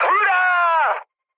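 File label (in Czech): Czech player killed enemy, mood high; War Thunder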